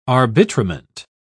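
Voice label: "arbitrament", British English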